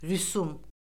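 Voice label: "rüsum", Azerbaijani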